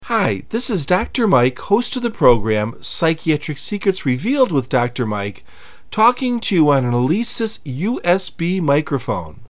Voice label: Alesis USB Mic